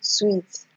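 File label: "sweet", Nigerian Pidgin, female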